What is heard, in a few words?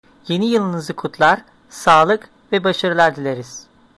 new year, turkish